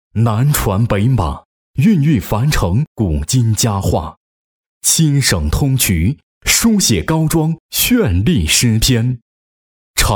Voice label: 男, 浑厚磁性, 国语男声配音; 高庄社区

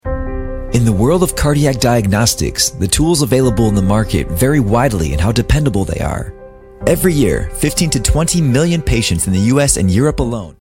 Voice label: 外籍英男,叙述

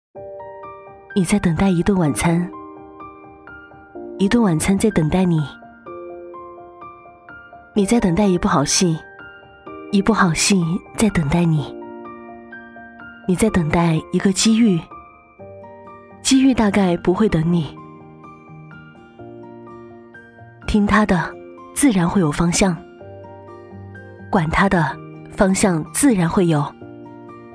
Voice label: 女 广告; 奔驰

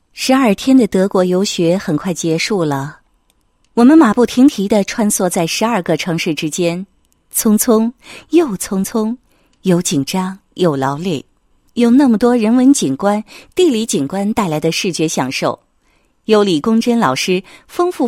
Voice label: 女-游记-德国游学回归, 配音案例

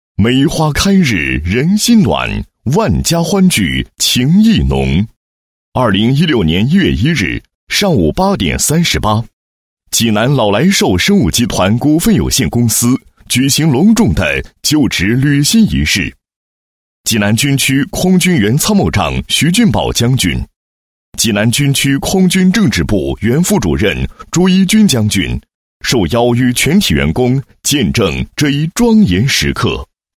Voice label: 男 会议 就职履新仪式, 配音; 老来寿集团就职履新仪式

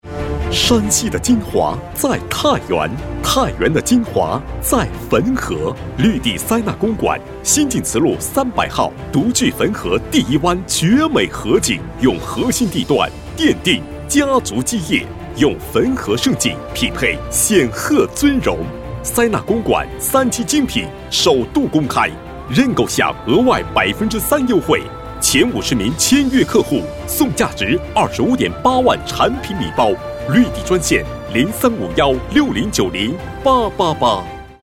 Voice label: 男, 房产广告, 广告, 激情, 浑厚

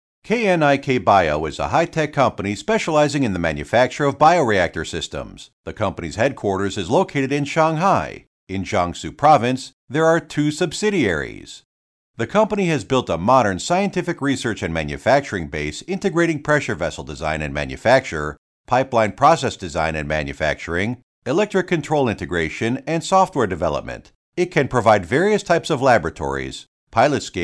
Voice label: 英语版介绍

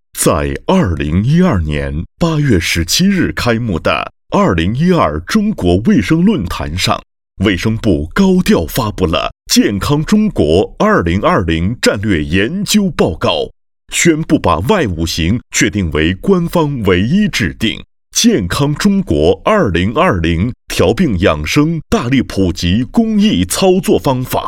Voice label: 男 栏目包装 电视节目; 圣宣; 健康中国2020; 大行动电视节目

